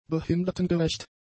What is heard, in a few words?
"behindertengerecht", German; adjectives